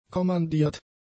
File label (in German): "kommandiert", German; adjectives